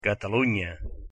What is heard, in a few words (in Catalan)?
"Catalunya", Com pronunciar en català